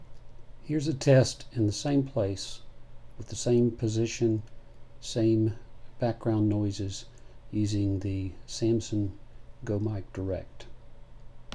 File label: Samson Go Mic